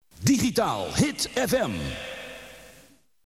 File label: Jingle (Voice over); radiopiraten